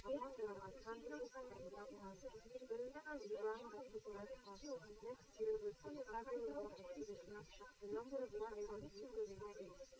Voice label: female liverec; SiSEC11; underdetermined